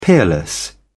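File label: "peerless", English, British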